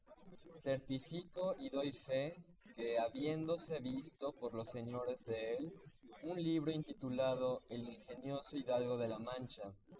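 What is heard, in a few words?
male instantaneous source; SiSEC08; SiSEC underdetermined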